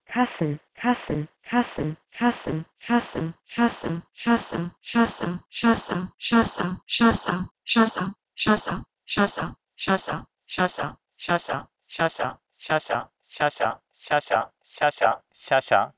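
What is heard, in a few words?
Proto-Indo-European kh2sn to Sanskrit shasha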